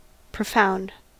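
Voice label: "profound", American English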